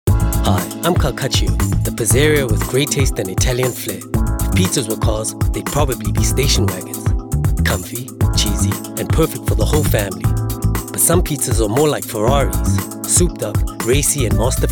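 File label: english South African male commercial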